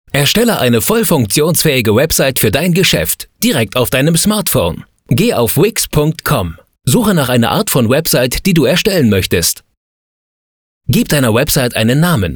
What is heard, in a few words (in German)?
Erklaervideo; LOFT VOICES